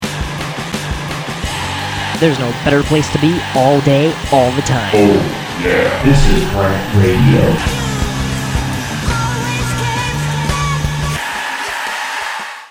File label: Promo; No Better Place; RantRadio; RantMedia; Station Promos-Listener Submitted